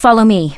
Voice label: Follow me, elven female; npc